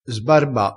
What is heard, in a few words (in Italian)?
"sbarba", vocabolario dialettale, crespinese